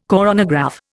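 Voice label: "coronagraph", Indian English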